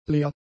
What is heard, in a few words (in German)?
"leer", German; adjectives